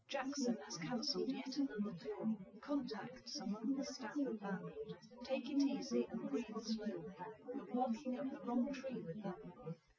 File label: female liverec; SiSEC08; SiSEC underdetermined